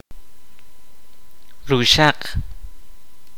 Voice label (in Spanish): "hoja", kaqchikel